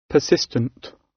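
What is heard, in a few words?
"persistent", British English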